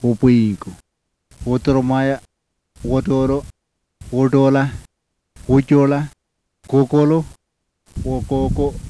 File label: yanyuwa; [wubuwiŋgu wud̪urumaja wuduru wuɖuɭa wuḏuɭa guɟuɭu wugugu]